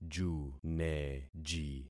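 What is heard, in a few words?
"juneji", concatenated syllables; Affixing experiment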